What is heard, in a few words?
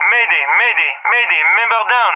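"member down", English speech; Messages; Allied Flight; DCS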